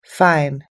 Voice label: "fine", us english